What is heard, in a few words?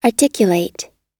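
"articulate", American English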